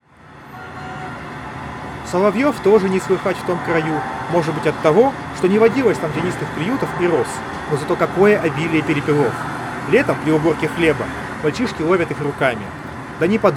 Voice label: Recorder noise; Запись на профессиональный рекордер